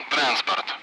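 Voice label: Speech Sound Russian Common Allied Flight; DCS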